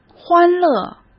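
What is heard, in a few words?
"欢乐" Mandarin Chinese; chinese flashcards; hsk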